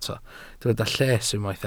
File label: "lles", Welsh